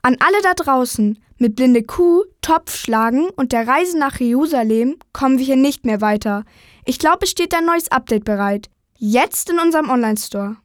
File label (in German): maedchen